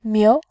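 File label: "miu", Mandarin, tone 2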